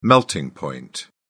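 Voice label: "melting point", British English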